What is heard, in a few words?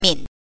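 "min", Mandarin Chinese pinyin, neutral tone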